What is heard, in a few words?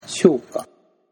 "shouka", Japanese